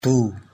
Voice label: "Tu", Haryanvi; You

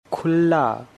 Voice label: "Khulla", Angika language; Opened

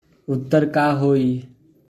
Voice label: Uttar ka hoi?, Bhojpuri

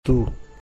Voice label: "Tu", Bhili; You

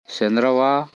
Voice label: "Sendrava", Korku; Walk

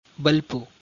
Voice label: "Balpu", Tulu; Run

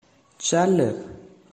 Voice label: "Chal", Kangri; Walk

